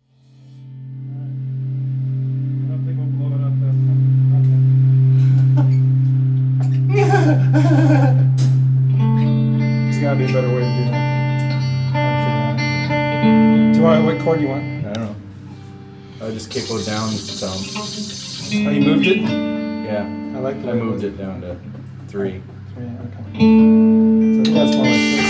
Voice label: Us Talking